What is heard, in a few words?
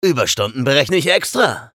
vo menu chatter